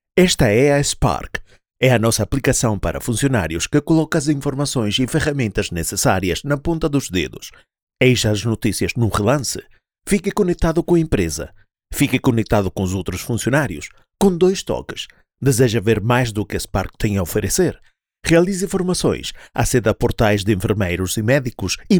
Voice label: Spot EU PT; Sparq